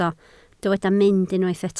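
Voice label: "mynd" Welsh, spoken word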